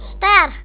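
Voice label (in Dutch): "ster", Dutch; tdegames; ktuberling; nl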